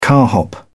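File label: "carhop", British English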